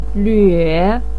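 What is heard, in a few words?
"lve", Mandarin Chinese third tone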